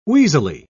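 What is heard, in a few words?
"wheezily", British English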